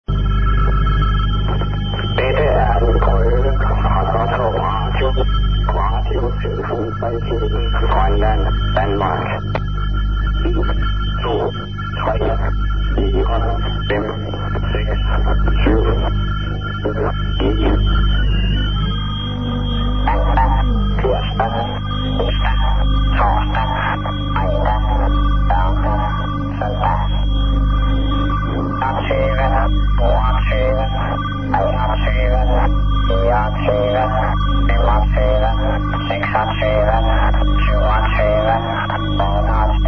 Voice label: usb